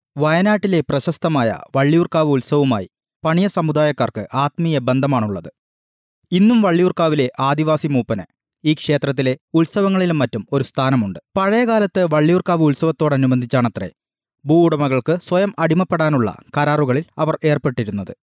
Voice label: male malayalam voice over talent narrative